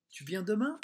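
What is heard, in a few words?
"tu viens demain", French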